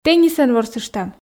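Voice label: "теннисӧн ворсыштам", Komi, female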